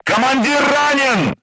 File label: voice message commander wounded, crew tanks russian